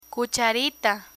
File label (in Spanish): "cucharita", Spanish; kitchen vocabulary; learn spanish